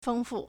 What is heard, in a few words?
"feng1 fu4", Chinese